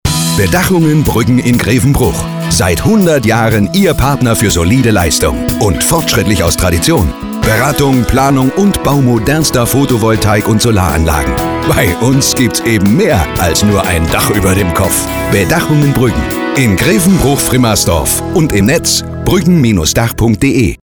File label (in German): Radiospot